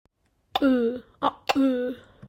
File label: Voiceless velar implosive